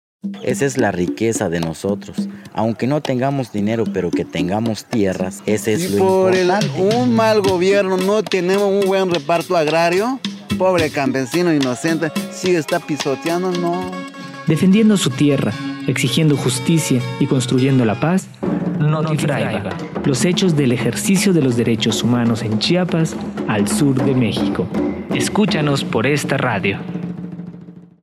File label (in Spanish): spot tierra y territorio